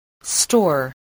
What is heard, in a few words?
"store", spelling